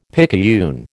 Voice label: "picayune", American